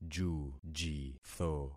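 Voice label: "jujitho", concatenated syllables; Affixing experiment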